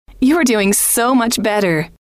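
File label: you Are Doing So Much Better, female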